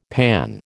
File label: "panne", American